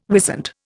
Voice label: "wisent", Indian